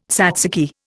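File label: "tzatziki", Indian English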